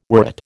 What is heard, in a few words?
"worrit", American